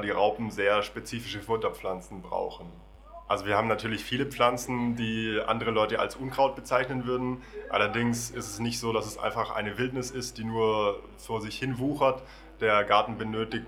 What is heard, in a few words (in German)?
Hörspiel Blumentöpfe Schmetterling